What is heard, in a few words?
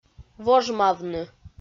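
"вожмавны", Komi, female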